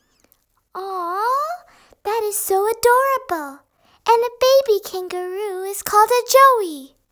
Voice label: VO Voice

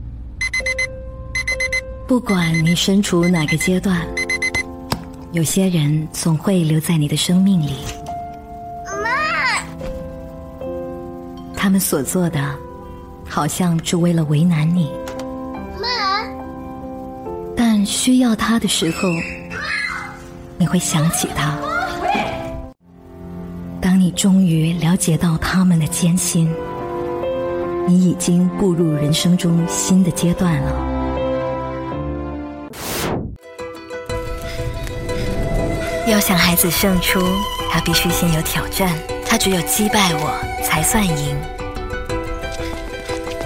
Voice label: Sensual Mature; Mitraland Tiger Biskuat

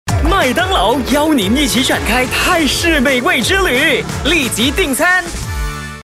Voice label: Mandarin - Exciting, Happy, Young; McDonald's

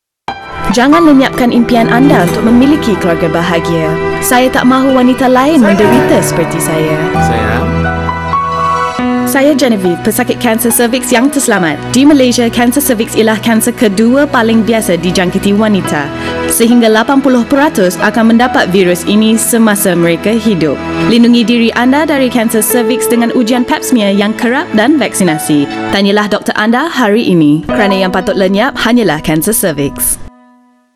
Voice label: PSA Bahasa Melayu; National Cancer Society Malaysia

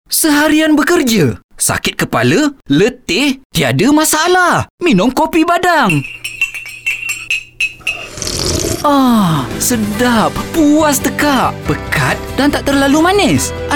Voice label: COMMERCIAL WITHOUT REVERB; KOPI BADANG

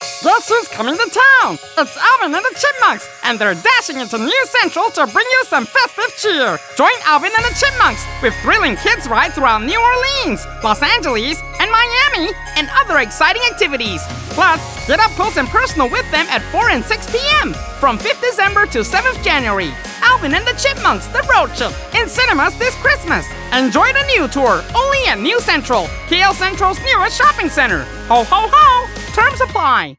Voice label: Eng Music; SPOTIFY NU CENTRAL Christmas

